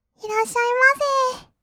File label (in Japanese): 「いらっしゃいませ」, kawaii voice; 挨拶